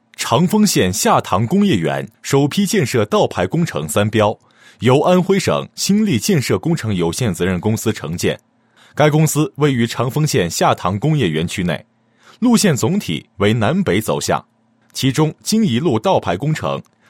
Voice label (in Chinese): 男 工程介绍, 配音; 长丰下塘工业园道排工程